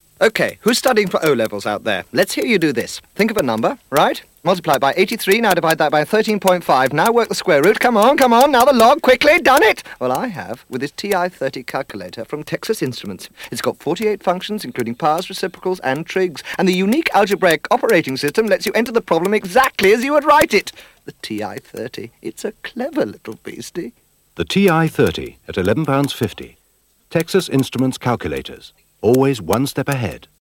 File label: Radio Hallam Advert; Texas Instruments TI30